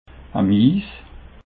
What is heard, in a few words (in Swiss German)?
"miess", Alsace